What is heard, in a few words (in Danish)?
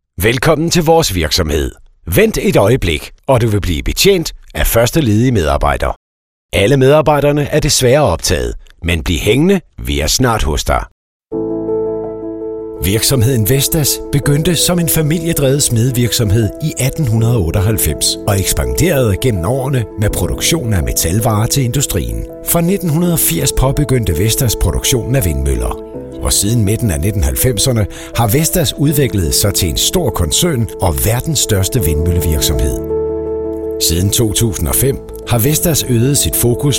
DK FORMEL MAND; Stemmebasen